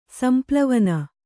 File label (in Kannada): "ಸಂಪ್ಲವನ", Kannada; samplavana; noun